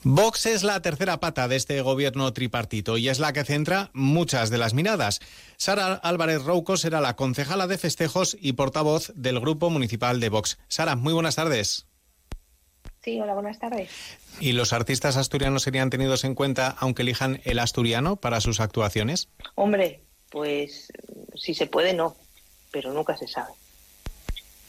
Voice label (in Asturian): conceyala vox, Asturies; odia